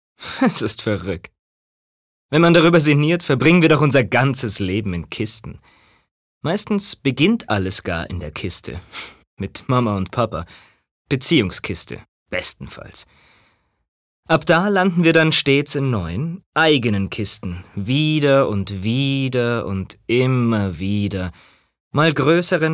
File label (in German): Frech; Kisten